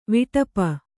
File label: "ವಿಟಪ", Kannada